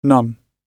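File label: "none", British English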